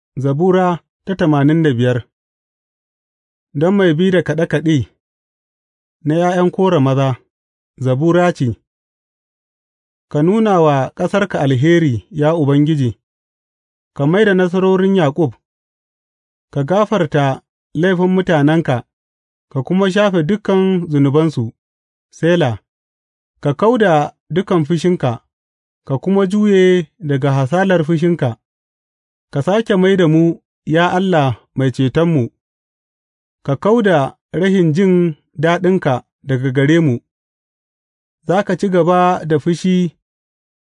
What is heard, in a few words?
hausa bible drama, psalms; hausa bsn nt drama